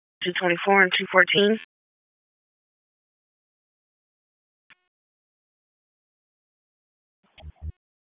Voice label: Raleigh Police Northeast District Disp, P25 recordings; wake